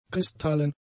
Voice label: "kristallen", German; adjectives

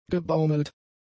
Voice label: "gebaumelt", German; adjectives

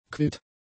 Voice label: "quitt", German; adjectives

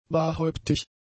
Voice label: "barhäuptig", German; adjectives

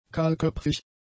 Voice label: "kahlköpfig", German; adjectives

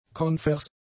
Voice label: "konvers", German; adjectives

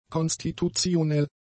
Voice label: "konstitutionell", German; adjectives

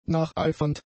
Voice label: "nacheifernd", German; adjectives

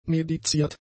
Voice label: "mediziert", German; adjectives

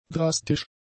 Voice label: "drastisch", German; adjectives

